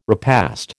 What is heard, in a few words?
"repast", American